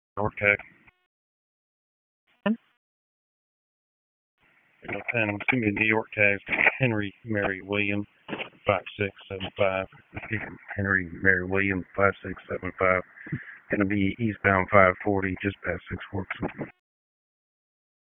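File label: Police Northeast District Dispatch; Raleigh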